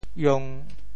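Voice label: "iong1", Teochew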